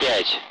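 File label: Speech Sound Russian Common Allied Flight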